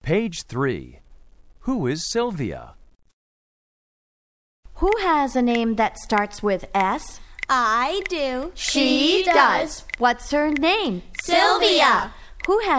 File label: children's jazz chants